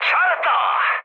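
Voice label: Korean bomb success mood high; speech; War Thunder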